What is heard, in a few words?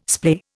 "splay", Indian